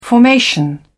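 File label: "formation", English, British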